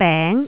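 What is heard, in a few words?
"beng2", Cantonese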